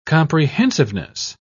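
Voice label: "comprehensiveness", English, British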